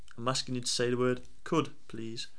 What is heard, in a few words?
Northern cud